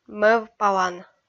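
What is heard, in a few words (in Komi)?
"мӧвпалан", Komi, female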